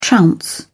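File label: "trounce", British English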